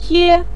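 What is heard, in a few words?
"tie", Mandarin Chinese syllable, neutral tone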